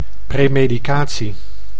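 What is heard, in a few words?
"premedicatie", Dutch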